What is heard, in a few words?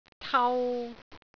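"tau3", Cantonese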